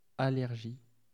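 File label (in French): "allergie" French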